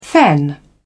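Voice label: "fen", British English, dictionary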